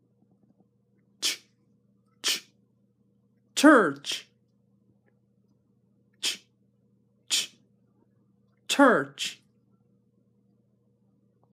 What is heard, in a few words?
church, english pronunciation